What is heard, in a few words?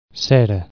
"wax", catalan